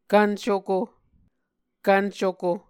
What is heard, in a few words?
"kvn cuko", Muscogee; A Dictionary of Creek/Muskogee; SIL International; Onvkv Ohhvyayices